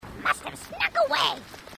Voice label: grunt; h2dialogue; grunt crazy